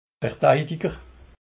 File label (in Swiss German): "verteidiger", Alsace